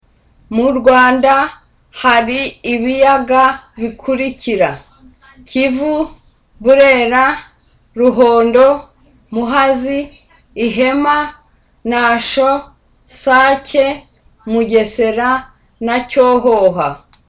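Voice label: Kinyarwanda, Smiling too; Mu Rwanda hari ibiyaga bikurikira: Kivu, Burera, Ruhondo, Muhazi, Ihema, Nasho, Sake, Mugesera na Cyohoha.; There are the following Lakes in Rwanda: Kivu, Burera, Ruhondo, Muhazi, Ihema, Nasho, Sake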